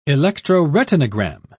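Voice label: "electroretinogram", British English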